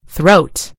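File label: "throat", British English; gcompris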